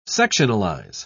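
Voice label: "sectionalize", British English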